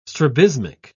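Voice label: "strabismic", British English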